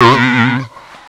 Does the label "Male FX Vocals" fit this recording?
Yes